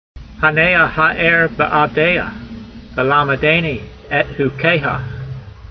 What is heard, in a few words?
voice, hebrew language; Psalm 119:135; paneyha ha'ayr be'av'deyha, velammedaynee et-hukeyha